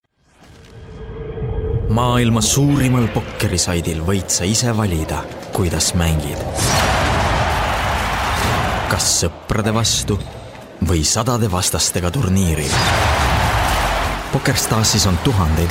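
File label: finnish male commercial; voice talents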